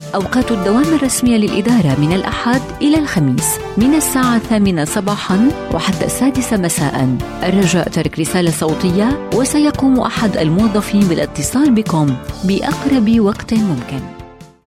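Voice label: Arabic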